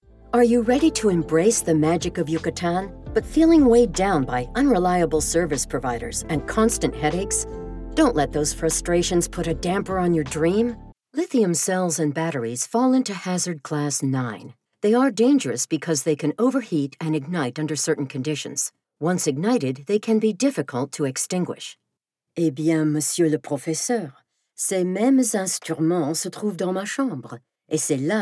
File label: Commercial, Medical & Corporate Narration